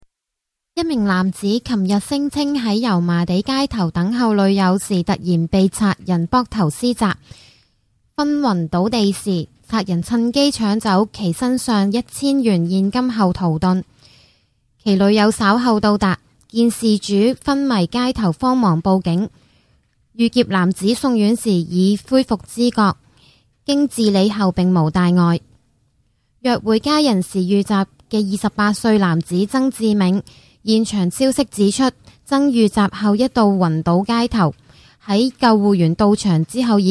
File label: news, chinese